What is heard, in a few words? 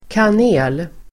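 "kanel", Swedish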